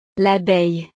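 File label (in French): "labeille", French; la abeja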